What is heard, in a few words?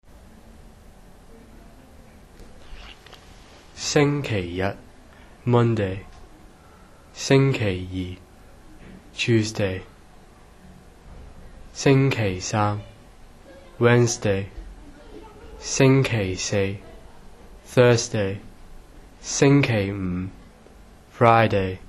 Cantonese days